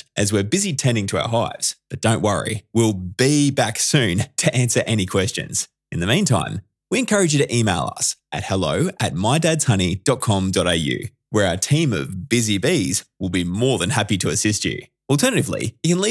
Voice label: Phone Honey Voicemail Greeting; My Dads Honey

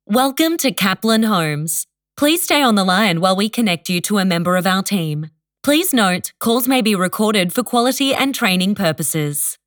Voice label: Phone Greeting; Sundancer Homes; Kaplan

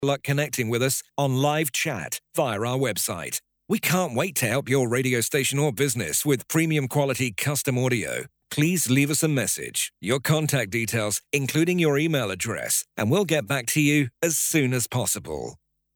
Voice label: UK Male Phone

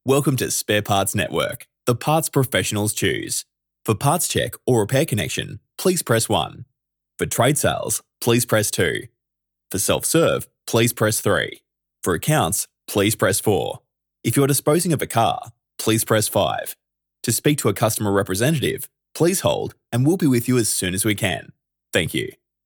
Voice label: Phone Welcome Greeting Spare Parts Network

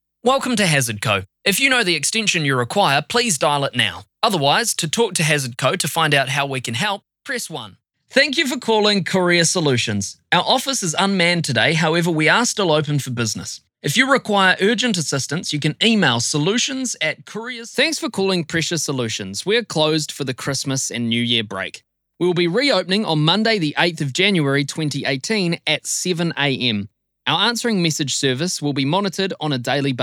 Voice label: NZ Male Voice Phone

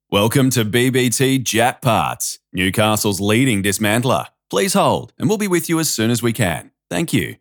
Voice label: Phone Welcome Greeting; BBT Japparts

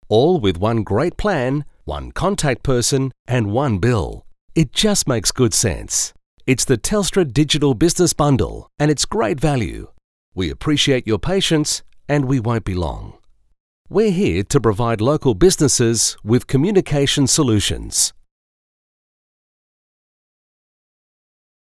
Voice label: AU Male Voice Talent Phone

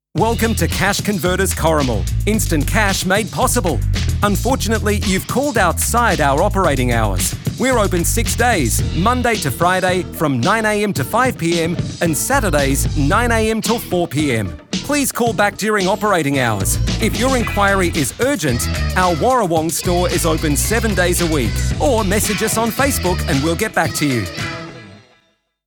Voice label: Phone Voicemail; Corrimal; Cash Converters

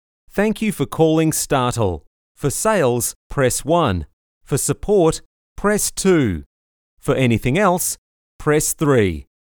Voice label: AU Male Voice Talent Phone

